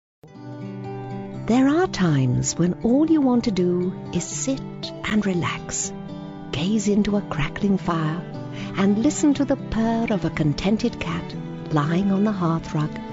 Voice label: silky smooth, readings